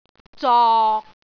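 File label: "zok6", Cantonese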